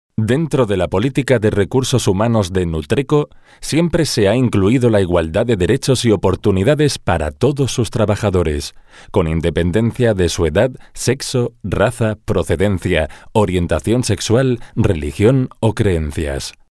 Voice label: locutor spanish voiceover; locutores

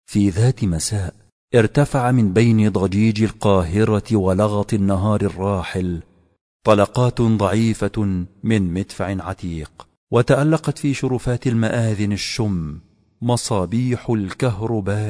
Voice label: arabic voiceovers egypt locutor arabe; Arabic male voice over. Egyptian; locutores

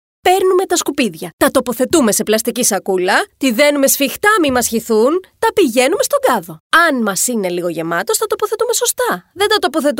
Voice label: locutora griega greek voiceover; Greek female vociceover

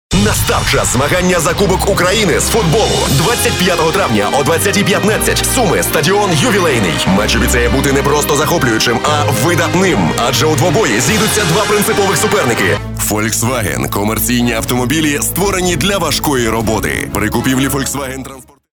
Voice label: ukrainian voiceover locutor ucraniano; Ukrainian male voice over

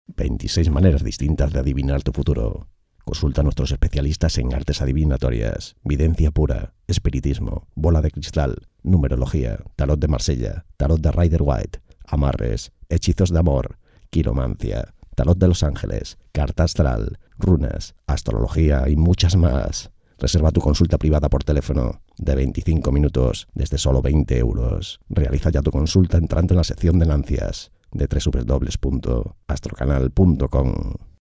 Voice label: promo television spanish voiceover

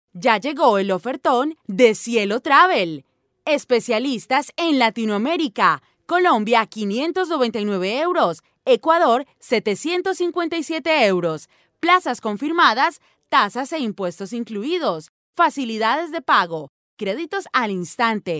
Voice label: locutora colombiana colombian voiceover

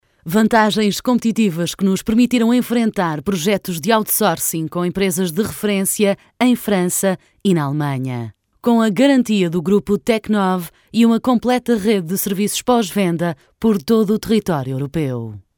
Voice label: locutora portuguesa portuguese female voiceover

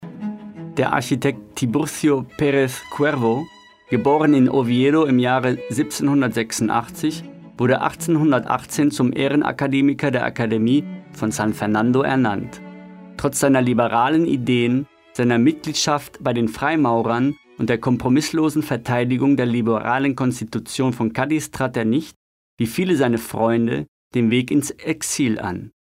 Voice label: locutor aleman german voice over; Deutscher Sprecher

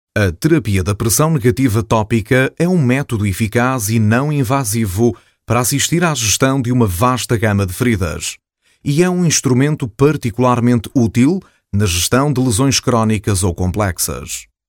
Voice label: portuguese locutor portugal; speaker; portugueses

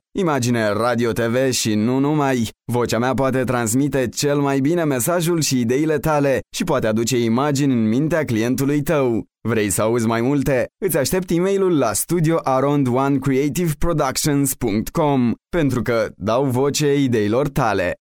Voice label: romanian male voiceover; locutor rumano

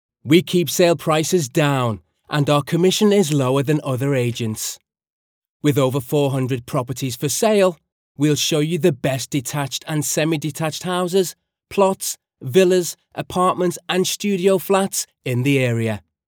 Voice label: locutor ingles english voiceover

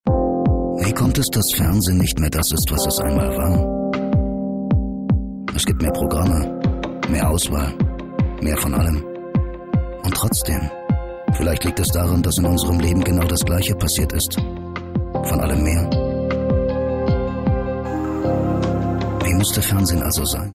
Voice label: german voice over locutor aleman; Deutscher Sprecher

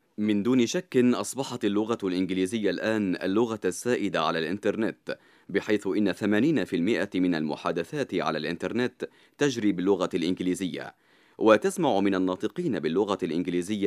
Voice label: locutor arabe arabic voiceover; Arabic male voice over

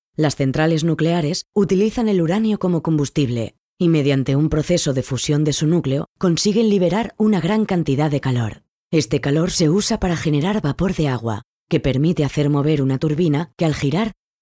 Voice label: locutora spanish voiceover; locutores

